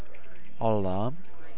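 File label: "alarm", JPN, male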